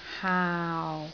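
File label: "haau6", Cantonese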